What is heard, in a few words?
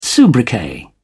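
"sobriquet", British English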